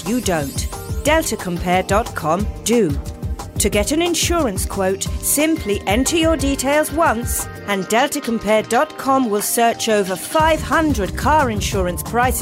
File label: Compare Commercial; Promotional